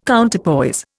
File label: "Counterpoise", Indian